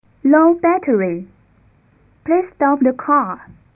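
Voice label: 8k 8nonPCM English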